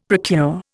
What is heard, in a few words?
"procure", Indian; Dictionary